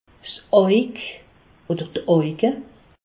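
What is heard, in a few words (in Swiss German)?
"aug", Alsace, Haut Rhin; medecine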